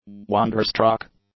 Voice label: "wonderstruck", english; adjectives